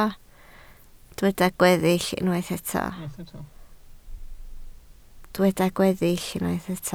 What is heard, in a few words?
"gweill", Welsh